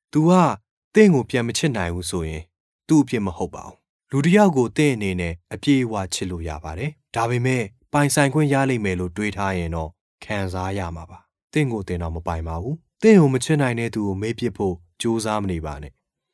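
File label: Burmese, Myanmar, male, voice over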